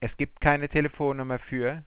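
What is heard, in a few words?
there is no phone number for, German, Voice Sounds; Samsung SGH-i310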